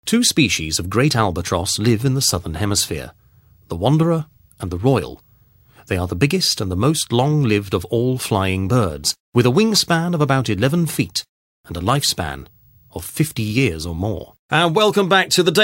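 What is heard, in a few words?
UK English, General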